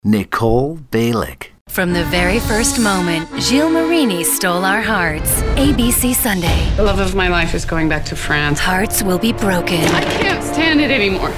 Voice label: promo

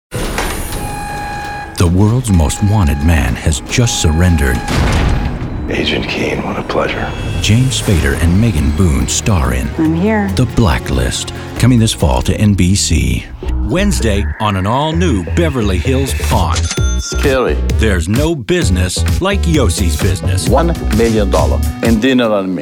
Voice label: PromoDemo

